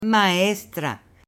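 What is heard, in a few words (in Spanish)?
"maestra", Spanish, male; AppLenguaje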